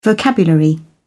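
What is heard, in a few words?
"vocabulary", British English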